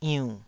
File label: "iunn3", taiwanese syllables